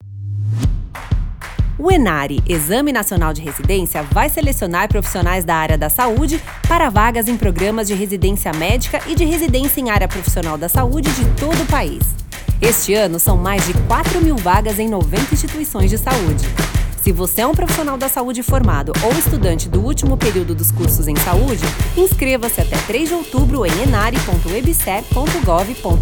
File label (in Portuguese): spot; enare 2022 inscricoes